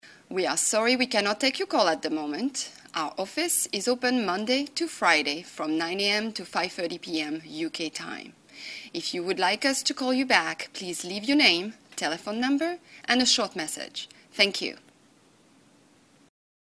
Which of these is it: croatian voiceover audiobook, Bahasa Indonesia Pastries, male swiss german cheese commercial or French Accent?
French Accent